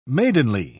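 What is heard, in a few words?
"maidenly", British English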